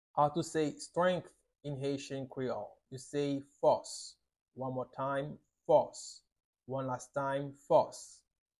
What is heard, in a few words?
"Fos" Haitian Creole pronunciation by a native Haitian Creole teacher; How to say Strength in Haitian Creole